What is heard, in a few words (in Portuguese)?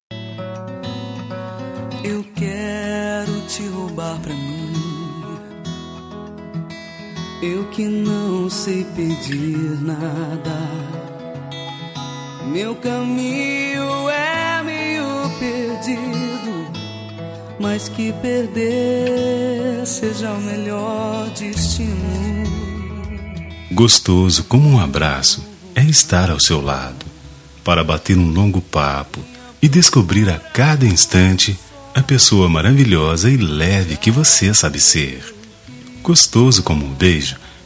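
Namorados, male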